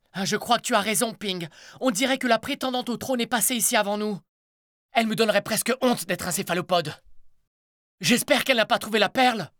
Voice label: character, French, male